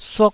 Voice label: "suk1", taiwanese Syllables